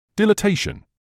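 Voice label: "dilatation", British English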